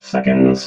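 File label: "seconds", robot voice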